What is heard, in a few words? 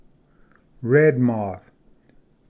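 "red moth", English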